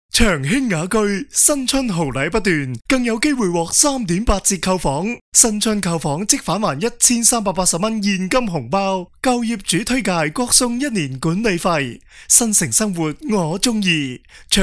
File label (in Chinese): 男粤 广告 地产 房产广告; 粤语